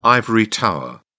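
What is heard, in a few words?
"ivory-tower", British English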